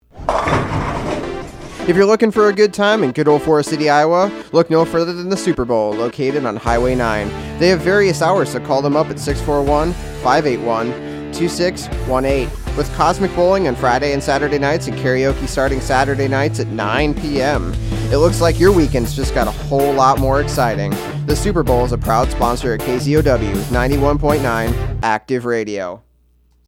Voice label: Ad; SuperBowl